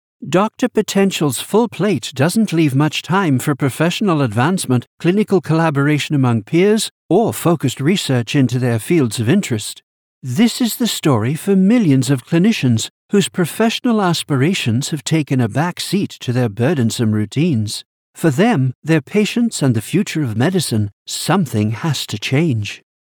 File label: Androgynous voice